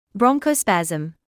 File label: "bronchospasm", medical english